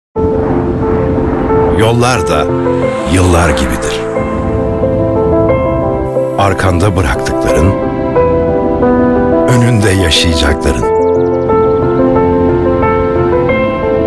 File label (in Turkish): reklam; bp